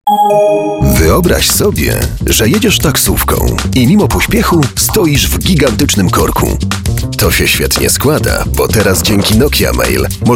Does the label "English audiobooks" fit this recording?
No